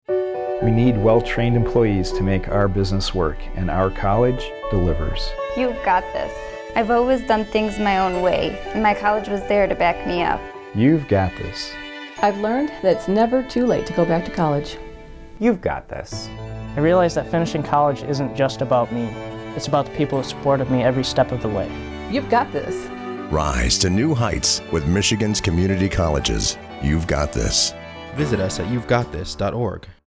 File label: Radio